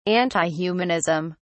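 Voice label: "antihumanism", British English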